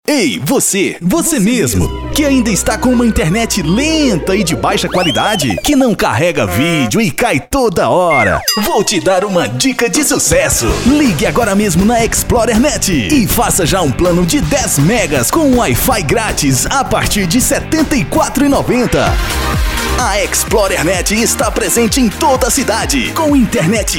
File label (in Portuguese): Spot; Explorer Net